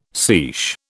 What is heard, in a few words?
"seiche", British